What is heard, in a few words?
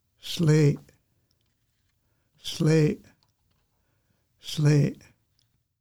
Douglas fir bark Musqueam name pronunciation